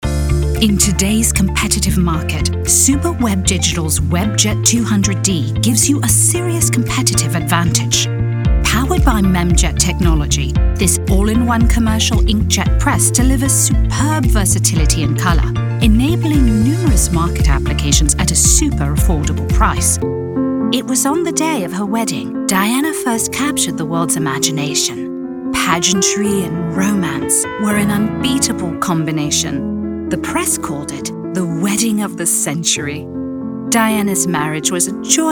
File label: UK Narration